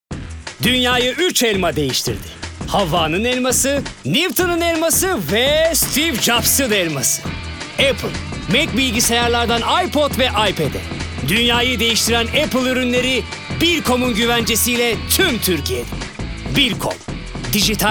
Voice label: enerjik esprili

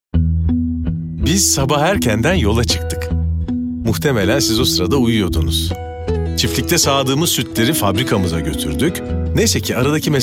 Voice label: enerjik esprili

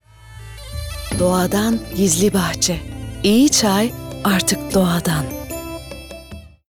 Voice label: sicak samimi

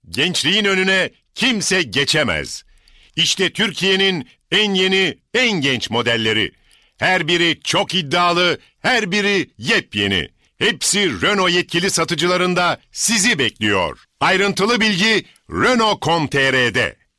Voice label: kampanya tempolu

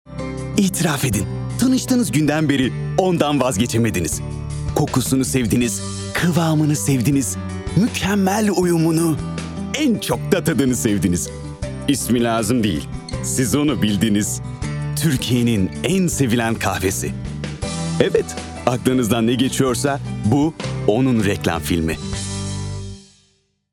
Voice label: SICAK SAMIMI

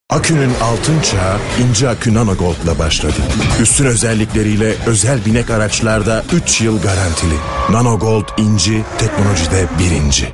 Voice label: otriter, seslendirme

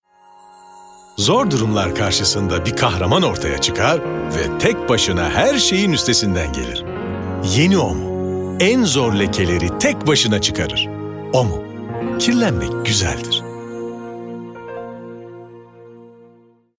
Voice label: sicak samimi

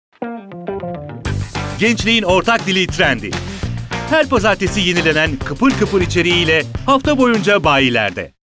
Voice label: kampanya tempolu, seslendirme

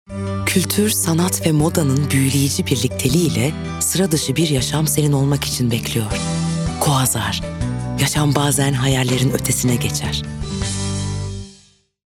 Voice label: sicak sakin

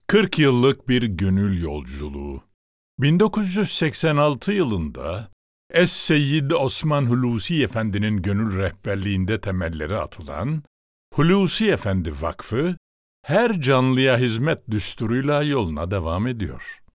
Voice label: Tanintim, seslendirme

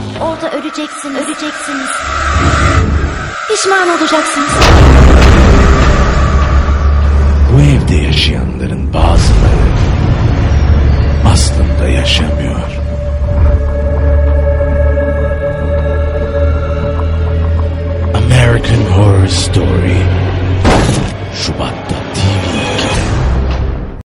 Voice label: fragman tanitim, seslendirme

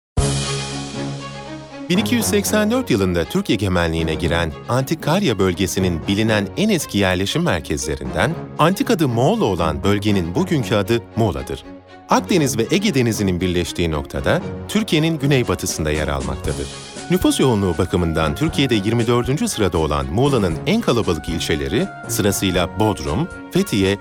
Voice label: belgesel tarihi, seslendirme

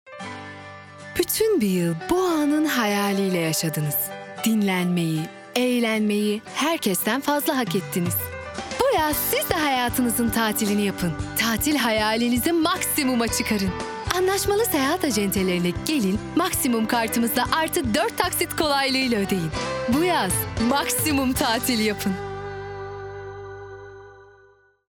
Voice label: kampanya, seslendirme

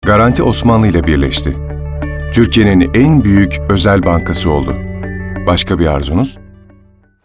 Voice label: otoriter, seslendirme

